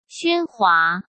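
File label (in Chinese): "喧哗", Mandarin Chinese; hsk; chinese flashcards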